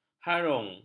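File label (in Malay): "harung", Malay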